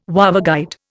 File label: "waveguide", Indian